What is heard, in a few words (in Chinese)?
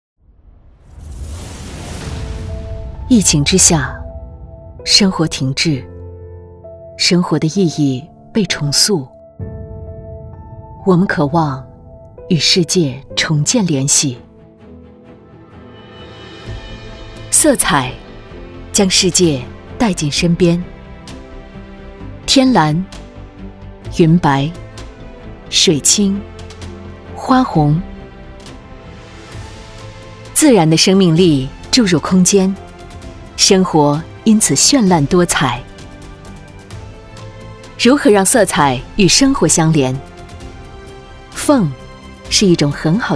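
旁白感染力发布会开场; 色彩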